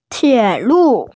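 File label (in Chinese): "tie3lu4", Mandarin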